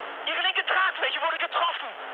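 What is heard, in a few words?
German left wing mood high, speech; War Thunder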